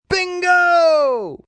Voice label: Bingo Shout, Bingo, male: 'Bingo!', (human voice speaking)